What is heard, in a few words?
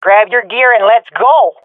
round start, swat fem; vo; Counter Strike Model Pack; CS:GO